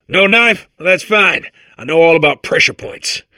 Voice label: babble; Portal 2